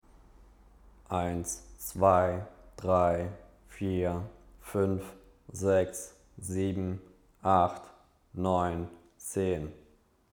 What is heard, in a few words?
lavalier